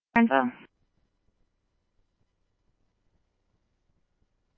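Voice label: scanner, Phoenix Police Department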